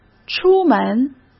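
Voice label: "出门" Mandarin Chinese; hsk; chinese-flashcards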